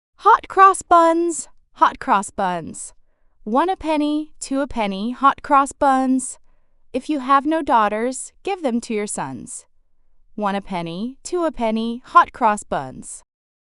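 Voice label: female US, classic rhymes; Hot Cross Buns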